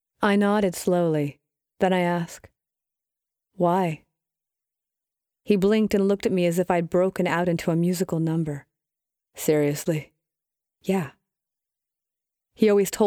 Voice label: Fiction male and female dialogue sci-fi audiobook